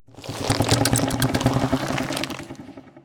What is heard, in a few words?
taunt gross mouth; smite; cthulhu